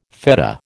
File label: "fetta", American